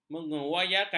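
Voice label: "mengeyakan", Malay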